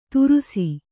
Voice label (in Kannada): "ತುರುಸಿ", Kannada